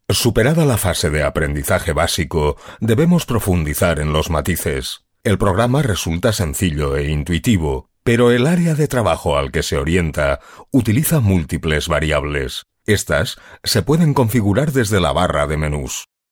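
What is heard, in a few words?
Spanish Spain, male, voice over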